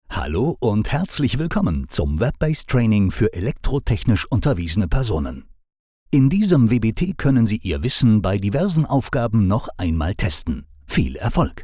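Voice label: German, male, e-learning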